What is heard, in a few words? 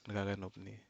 good kava, Aneityum; Remarkable Plants